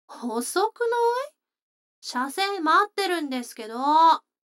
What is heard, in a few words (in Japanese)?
女の子 台詞